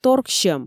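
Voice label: "торксьӧм", Komi, female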